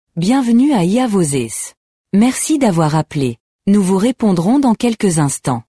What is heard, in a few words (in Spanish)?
tono natural, Voz mujer con I.A., Locución en francés y tono natural; Emma